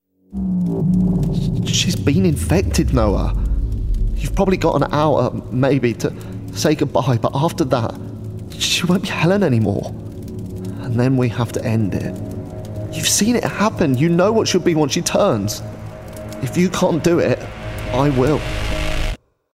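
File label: Gaming Everyman Natural, male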